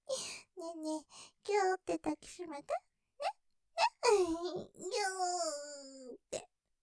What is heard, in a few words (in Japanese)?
余韻 おねだり, ASMR; 立体; ステレオ; ねぇ?ぎゅっとだきしめて